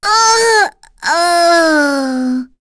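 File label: Vox Dead, English; Rodina; kings raid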